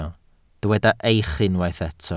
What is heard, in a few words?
"eich", Welsh